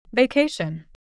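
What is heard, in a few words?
"vacation", English pronunciation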